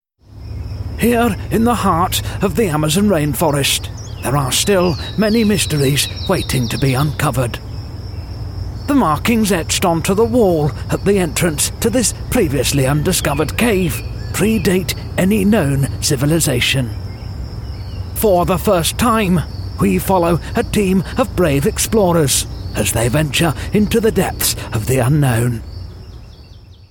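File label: Documentary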